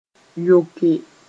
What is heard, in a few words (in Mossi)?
"yoke", moore